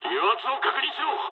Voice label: damaged oil mood low, speech jp; War Thunder